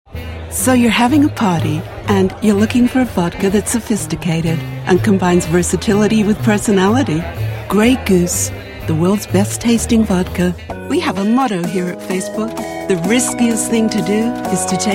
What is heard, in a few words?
Transatlantic